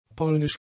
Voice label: "polnisch", German; adjectives

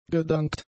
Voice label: "gedankt", German; adjectives

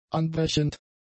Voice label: "anbrechend", German; adjectives

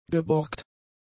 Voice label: "geborgt", German; adjectives

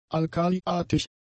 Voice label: "alkaliartig", German; adjectives

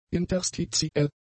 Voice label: "interstitiell", german; adjectives

